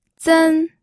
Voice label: "zen1", pinyin

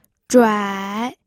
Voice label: "zhuai3", pinyin

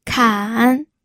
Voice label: "kan3", pinyin

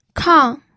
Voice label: "kang4", pinyin

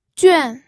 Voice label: "juan4", pinyin

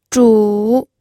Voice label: "zhu3", pinyin

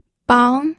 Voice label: "bang2", pinyin

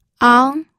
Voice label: "ang2", pinyin

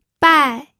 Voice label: "bai4", pinyin

